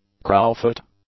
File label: "crowfoot", English; nouns